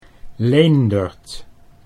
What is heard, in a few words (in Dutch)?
"Leendert", Dutch